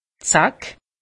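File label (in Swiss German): "zack", Alsatian; medecine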